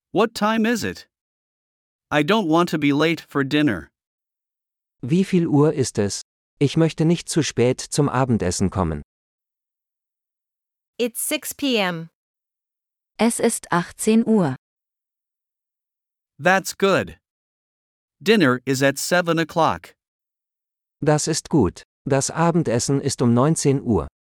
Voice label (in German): Dialog mit Uebersetzungen; Die Uhrzeit